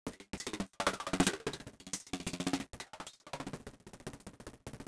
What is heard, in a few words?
reconstructed audio